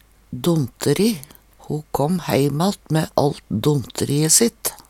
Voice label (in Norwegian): "donteri", Numedalsmål